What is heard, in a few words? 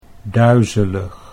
"duizelig", Dutch